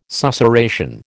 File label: "susurration", American